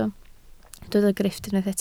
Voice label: "grifft", Welsh